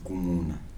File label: "peel", Kibembe